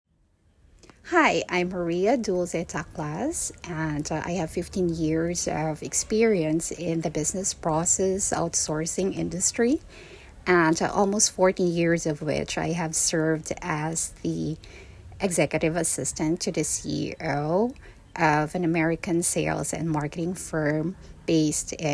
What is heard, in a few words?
VOICE RECORDING, Self Introduction